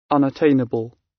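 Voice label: "unattainable", British English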